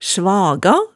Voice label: "svaga", hemsedalsmaalet

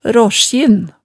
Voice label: "raasjin", Hemsedal

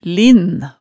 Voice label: "linn", hemsedalsmaalet tale

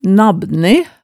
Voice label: "nabbne", Hemsedal

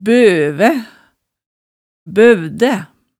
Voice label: "bøvæ, bøvdæ", hemsedalsmaalet tale

